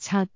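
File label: "cat3", Cantonese; amazonHiuJin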